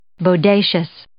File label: "bodacious", English